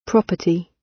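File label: "property", British English; Time, history; Thời gian, lịch sử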